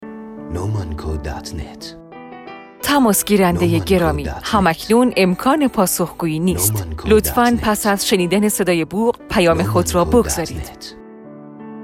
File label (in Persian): صدای منشی تلفنی برای پیغام گیر تلفن با صدای گوینده خانم